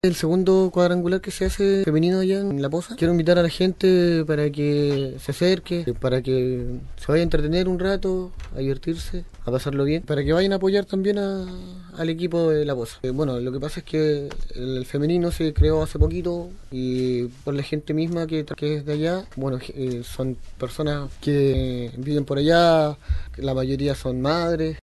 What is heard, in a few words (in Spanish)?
CUÑA FUTBOL FEMENINO